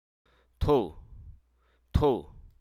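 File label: Hruso Aka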